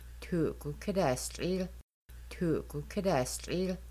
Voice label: I will pour water, Kaska; Making Bannock